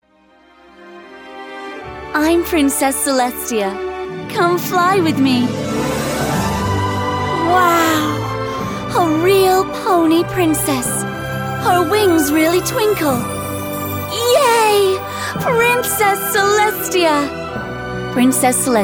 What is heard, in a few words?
advert; disney princess demo